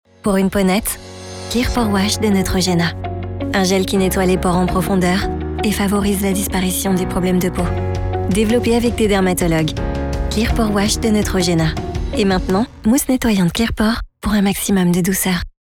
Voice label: Clear Pore Wash spot, voix off publicitaire commercial; Neutrogena